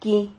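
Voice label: "qui", Spanish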